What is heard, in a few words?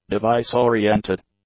"device-oriented", english; adjectives